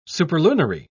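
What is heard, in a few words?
"superlunary", English, British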